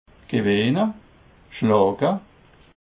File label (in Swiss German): "gewenne", Alsace